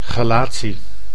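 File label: "chelatie", Dutch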